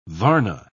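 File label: "varna", British English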